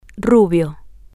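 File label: blond, Spanish, female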